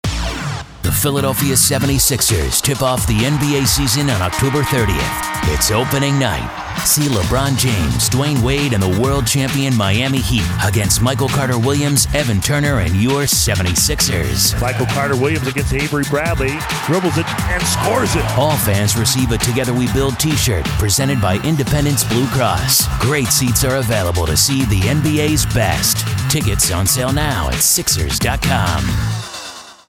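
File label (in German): American English, Dynamisch; Fremdtake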